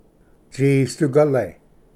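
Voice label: "tsisdugale", Cherokee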